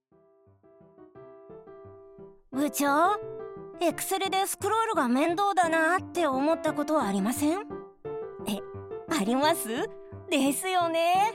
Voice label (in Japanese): 愉快な女性社員 キャラナレ; VOICE SAMPLE